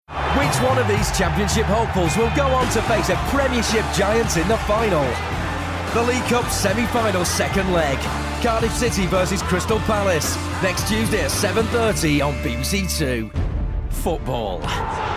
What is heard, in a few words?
Sports Promo Showreel